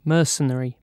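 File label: "mercenary", British English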